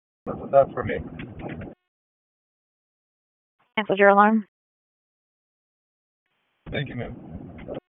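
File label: Police Dispatch; Raleigh; Southwest District; wake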